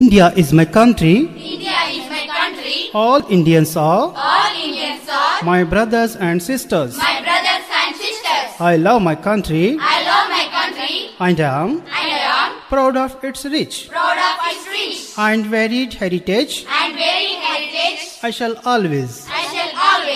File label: english pledge